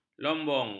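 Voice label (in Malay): "lombong", Malay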